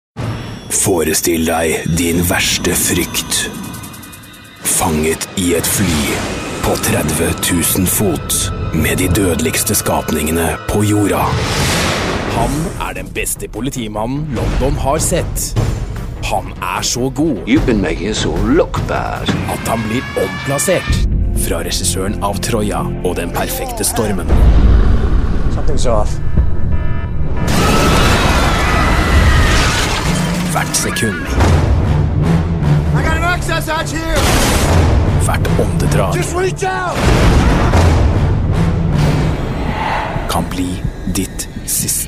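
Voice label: norwegian male character, voice demos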